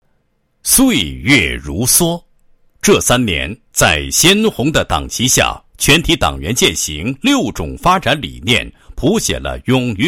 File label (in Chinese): 男 党建; 鞍钢设备采购中心党建